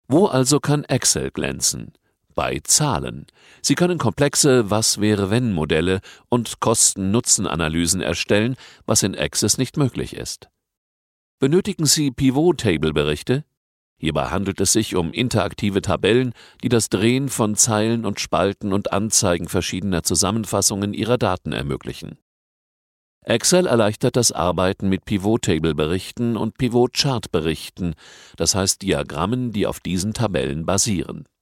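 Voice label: de informative, German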